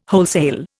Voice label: "wholesale", Indian